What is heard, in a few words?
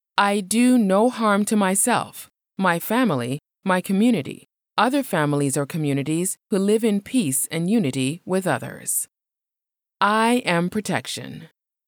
I Am Protection English Female